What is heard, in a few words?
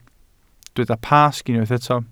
"Pasg", Welsh